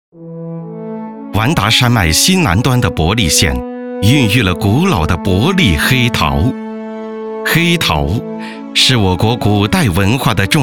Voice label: 专题 大气稳重; 国家级非物质文化遗产申报

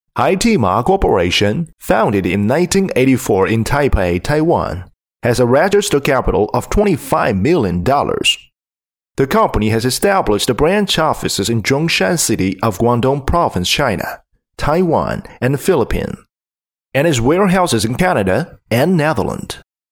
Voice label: 英男 企业激情

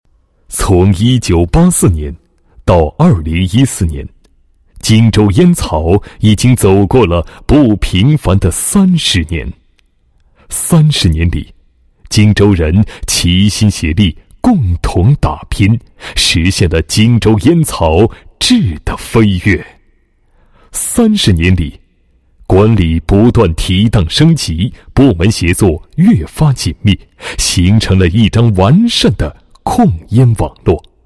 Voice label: 男 烟草, 国语男声配音; 荆州烟草

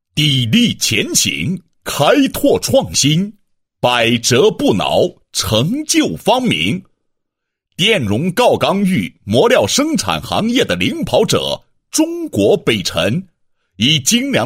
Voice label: 男 企业专题; 某市北辰特种耐磨材料有限公司

